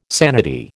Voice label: "sanity", American